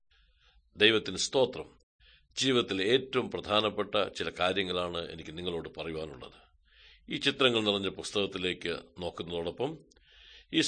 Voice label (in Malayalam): good news malayalam, drama